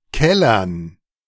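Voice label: "kellern", German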